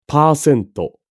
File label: japanese pronunciation percent